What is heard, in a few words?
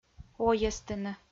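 "ойӧстыны", Komi, female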